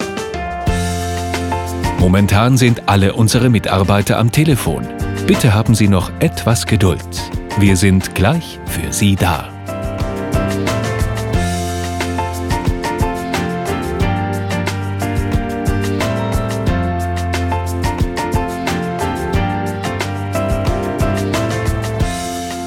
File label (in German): Warteschleife; Solutionbox